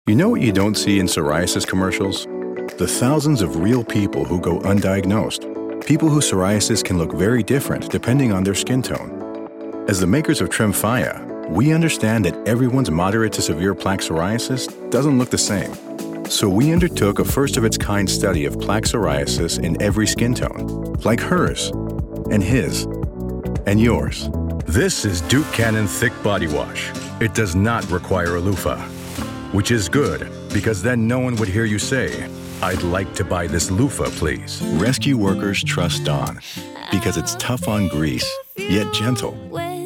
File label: deep, masculine voice